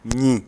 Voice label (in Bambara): "nyin", Bambara; corps; Mots Bambara